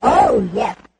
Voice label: oh yes 8 bit arcade cartoon

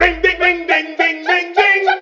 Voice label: "ring ding ding ding ding ding ding", voice

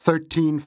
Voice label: "thirteen" announcer; numbers and letters

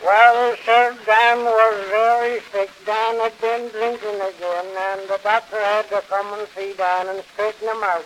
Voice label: old man rambles, gibbrish; Voice

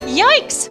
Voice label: "yikes", female; Voice; expletives

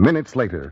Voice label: minutes later announcer

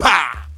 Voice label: "pow", Voice

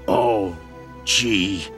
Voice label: "oh geez", expletives, g-rated swears; Voice

